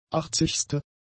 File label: "achtzigste", german; adjectives